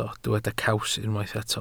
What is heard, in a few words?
"caws", welsh